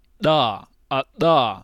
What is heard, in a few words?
voiced alveolar implosive